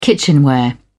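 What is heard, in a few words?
"kitchenware", British English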